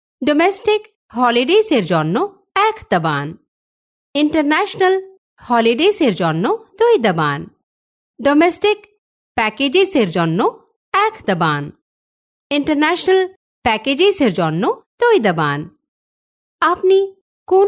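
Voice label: Bengali, female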